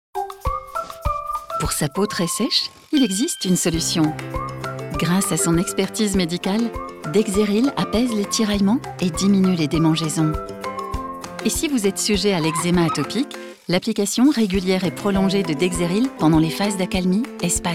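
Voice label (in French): pub voix off, voix off femme; dexeryl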